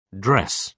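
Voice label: "dress" British English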